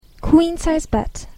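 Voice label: "queen size bed", English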